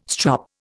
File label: "strop", Indian